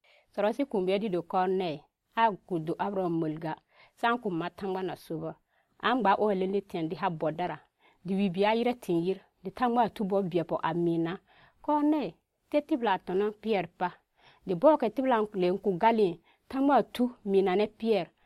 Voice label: Lobiri; Kɔrnɛii ha Debi; Peter Visits Cornelius